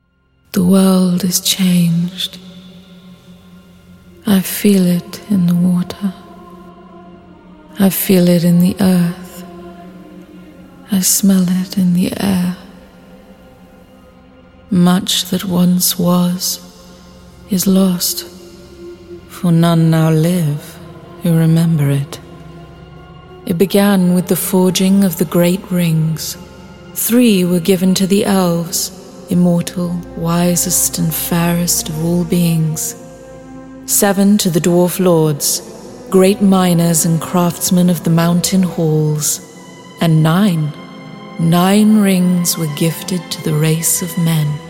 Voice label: female english audiobook narration; the lord of the rings